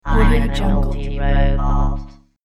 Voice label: robotic vox naughty robot